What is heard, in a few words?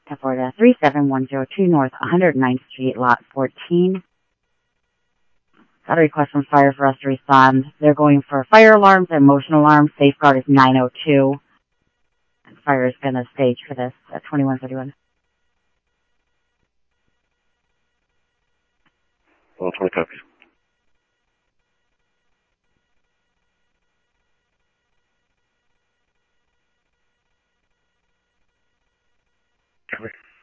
PD Police, scanner audio